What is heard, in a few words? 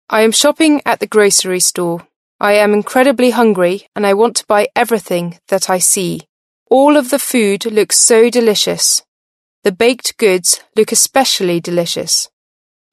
british female